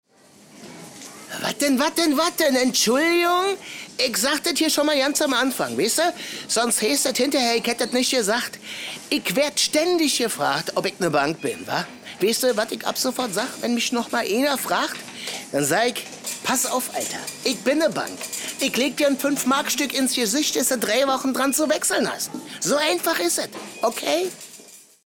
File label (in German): trickstimme berliner; LOFT VOICES